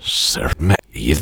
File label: the empress, voiceover reversed